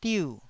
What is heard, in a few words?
"diu4", Taiwanese